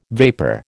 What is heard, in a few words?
"vapor", American